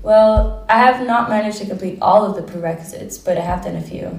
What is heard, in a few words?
female filler; interviewee